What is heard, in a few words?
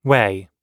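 "way", English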